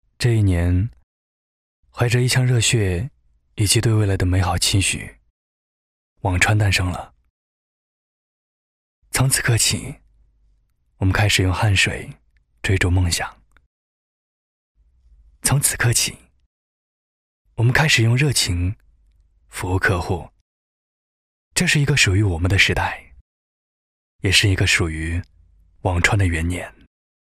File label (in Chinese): 男 角色 素人